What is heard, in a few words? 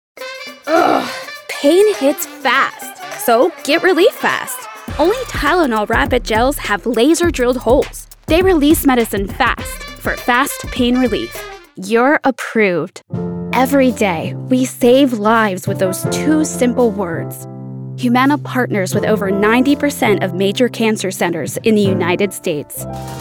Killer Commercial